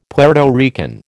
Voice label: "Puerto Rican", American